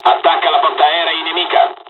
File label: bombing, dialogs italian; midway us; War Thunder